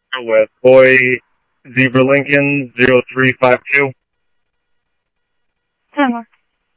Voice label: Tempe Police, scanner; SimulcastF-Tempe

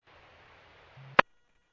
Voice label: Medevac, scanner; AirEvacMtOrd

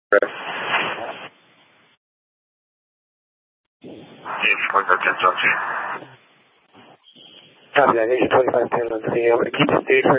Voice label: FD Departments VHF 154.800 Patch, scanner

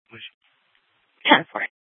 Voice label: Sheriff, scanner; Shrf Northeast

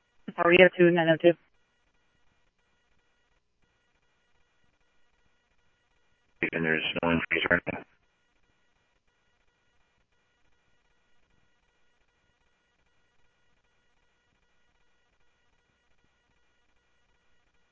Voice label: Scottsdale Police, scanner audio; H-Scottsdale

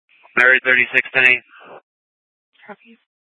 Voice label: Dispatch, scanner

